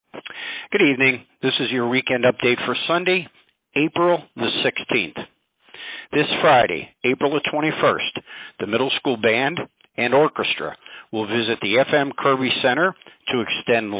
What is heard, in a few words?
Robocall